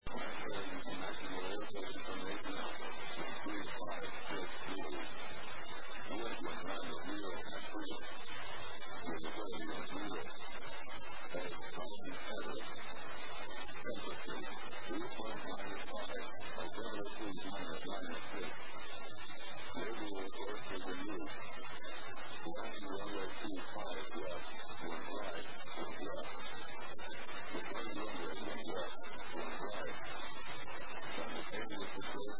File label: ATIS, USA; las